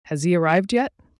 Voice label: sentence he arrived, us; alloy; everyone-can-use-english

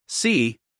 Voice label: "c", us guy

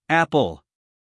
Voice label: "apple", us male; everyone-can-use-english

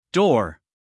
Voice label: "door", US, male; everyone-can-use-english

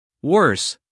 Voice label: "worse", us male; 1000 hours

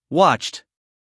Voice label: "watched", us male; everyone-can-use-english

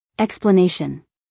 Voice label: "explanation" US English, female; everyone-can-use-english

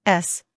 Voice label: "s", us

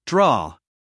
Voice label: "draw", US English, male; everyone-can-use-english

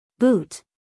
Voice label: "boot" us female

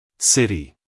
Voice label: "city" us male

